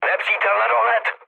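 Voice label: enemy detected, Czech, mood med; speech; War Thunder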